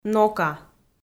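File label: "нока", Komi, female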